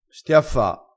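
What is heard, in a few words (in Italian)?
"stiaffa", vocabolario dialettale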